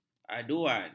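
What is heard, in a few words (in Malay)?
"aduan", Malay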